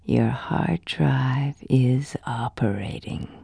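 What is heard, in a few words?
hard drive, phrases, sultry; Wired for Sound Pro CD; Aristosoft, Inc.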